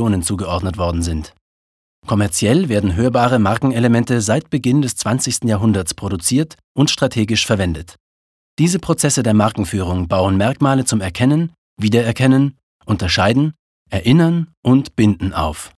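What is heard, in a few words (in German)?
commentary, Akustische Markenfuehrung, Neutral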